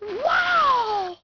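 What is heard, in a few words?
female wow; People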